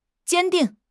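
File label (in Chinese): "坚定", Mandarin Chinese; HSK6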